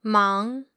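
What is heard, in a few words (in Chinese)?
"mang", Mandarin Chinese, female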